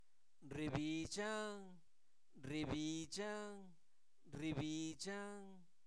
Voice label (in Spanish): Zapoteco Albarradas, santo domingo albarradas; INALI; verbos; se seca